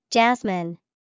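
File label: "Jasmine", English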